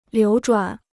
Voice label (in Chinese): "流转", Chinese